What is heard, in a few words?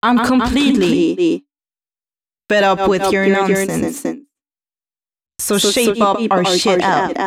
female c#132bpm, spoken hooks; Mutekki Media; Dance Ultra Voice VOL-1